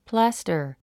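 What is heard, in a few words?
"plaster", English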